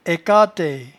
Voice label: "aicataie", patois jura